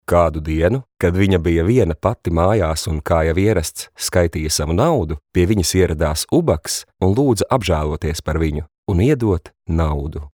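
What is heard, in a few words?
Latvian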